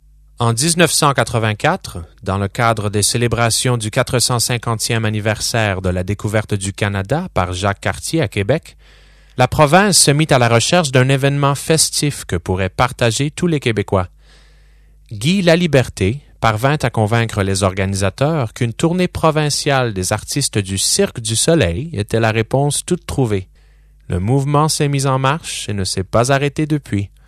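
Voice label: Canadian; French-Canadian, Male, 30s-50s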